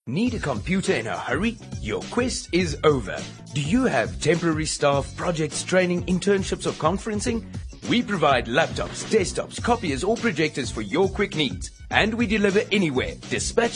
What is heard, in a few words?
English Medium Sell